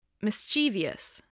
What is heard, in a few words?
"mischievous", voice, spelling